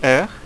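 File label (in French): "heure" French; ipa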